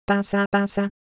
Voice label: Japanese, ideophones; Texture